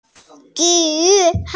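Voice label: "zhì yù", Mandarin Chinese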